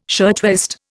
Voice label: "shirtwaist", Indian